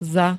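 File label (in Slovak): "za", Slovak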